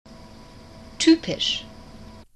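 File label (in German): "typisch" German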